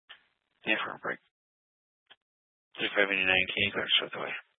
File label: Police Department Dispatch; Grand Junction